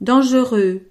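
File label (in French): "dangereux", French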